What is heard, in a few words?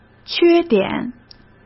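"缺点", Mandarin Chinese; chinese-flashcards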